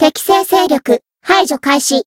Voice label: encount, jp

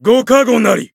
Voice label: heal jp; prai

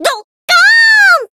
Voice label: finish, jp; myungwha

